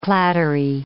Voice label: "clattery", English

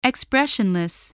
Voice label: "expressionless", voice

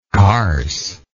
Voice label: "carse", English

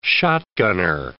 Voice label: "shotgunner", English

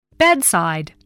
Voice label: "bedside", English

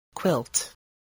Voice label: "quilt", english

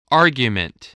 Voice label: "argument", English

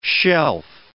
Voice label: "shelf", words

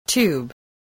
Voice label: "tube", voice

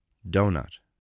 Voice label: "doughnut", spelling

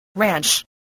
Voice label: "ranch", english

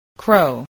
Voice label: "crow", English

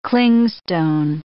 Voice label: "clingstone", English

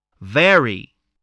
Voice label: "vary", English

